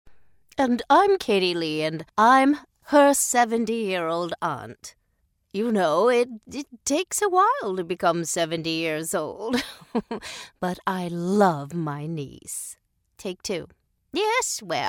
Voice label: american english voiceover, senior voice over; Character recording